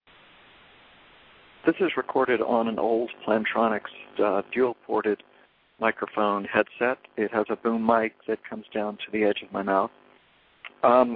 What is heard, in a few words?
Plantronics Boom Old inside, cell phone headsets